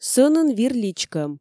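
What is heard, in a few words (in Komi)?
сӧнын вир личкӧм, Komi, female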